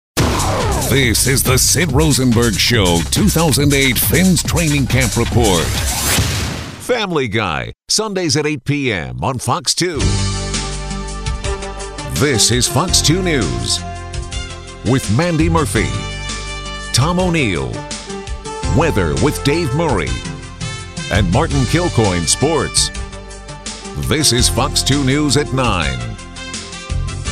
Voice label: TV Radio Imaging